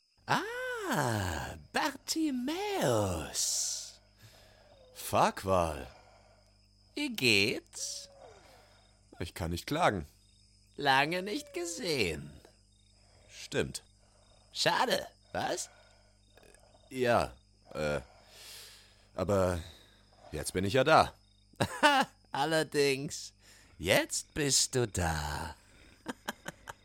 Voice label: Fantasy Hörspiel

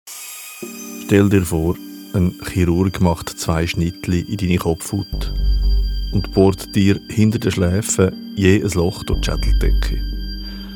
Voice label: TRAILER; Skalpell und Wahn

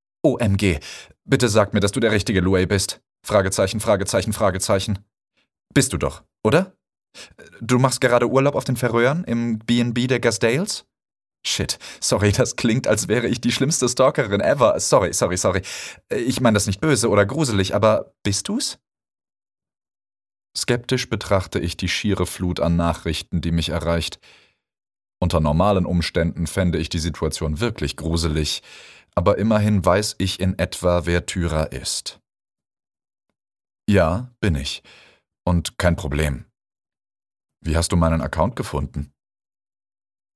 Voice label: Hörbuch - Of thunder and rain

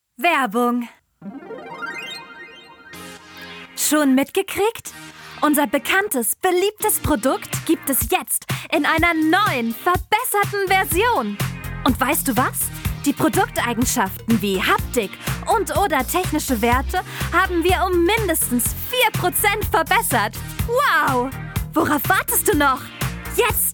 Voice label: Werbung

